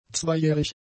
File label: "zweijährig", German; adjectives